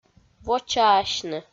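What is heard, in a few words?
"вочаасьны", Komi, female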